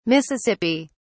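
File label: "mississippi", British English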